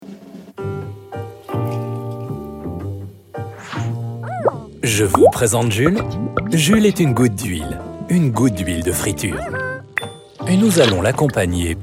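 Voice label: French, Variabel; Fremdtake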